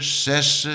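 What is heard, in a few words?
"sese", Low German, Lower Saxony, male; SEX; gem nds DE NI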